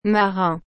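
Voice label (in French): "marin", French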